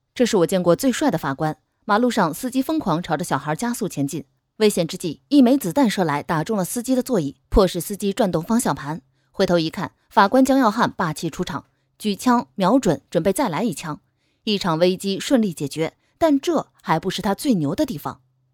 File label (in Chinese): 电影 解说